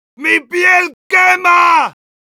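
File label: Heavy auto on fire, Spanish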